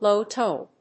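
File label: "low tone", English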